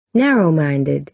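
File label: "narrow-minded", American English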